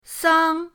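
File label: "sang", Mandarin pinyin, first tone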